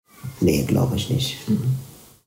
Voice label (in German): tamilisch sri lanka